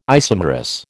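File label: "isomerous", American English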